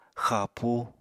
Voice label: "xapú", Apatani